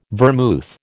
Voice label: "vermouth", American